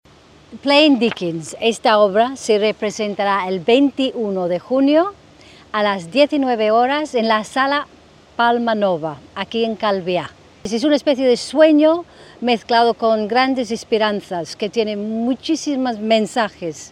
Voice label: kathleen in spanish